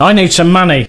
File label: Need Money, male; svencoop